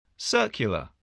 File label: "circular", English, voice